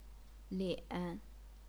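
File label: "lian", Chinese, third tone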